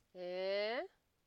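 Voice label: "ehn", Nigerian Pidgin, female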